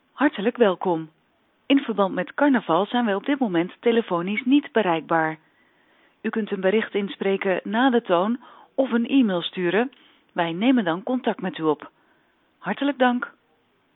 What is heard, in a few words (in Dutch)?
carnaval met voicemail; Carnaval-19: niet bereikbaar, met vm; Simmpl